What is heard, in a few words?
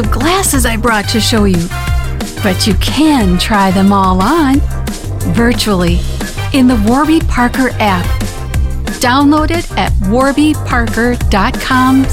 english us and canada female commercial